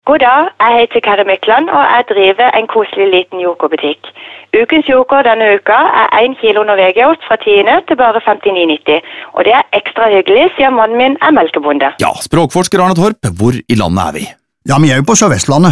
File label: radiospots